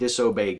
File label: "disobey", us